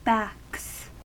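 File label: "backs" US English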